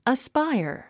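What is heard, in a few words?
"aspire", English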